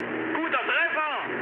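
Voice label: German bomb success mood high, speech; War Thunder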